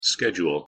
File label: pronunciation en schedule